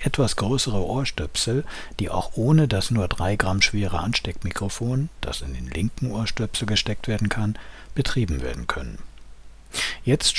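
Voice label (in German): BattleBuds am Audio Interface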